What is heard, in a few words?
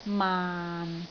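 "maan6", Cantonese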